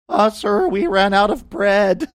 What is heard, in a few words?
funny